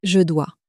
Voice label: "je dois", French